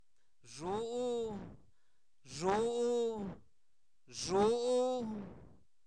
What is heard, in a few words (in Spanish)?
Zapoteco, santo domingo albarradas; temblor; fenomenos naturales; INALI